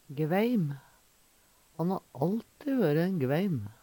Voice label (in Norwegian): "gveim", Numedalsmål